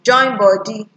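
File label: "join body", Nigerian Pidgin, female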